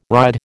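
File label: "ride", American English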